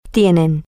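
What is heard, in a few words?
they have, spanish, female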